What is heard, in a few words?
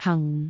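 "hang4", Cantonese; amazonHiuJin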